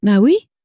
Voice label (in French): "ben oui", French; flashcards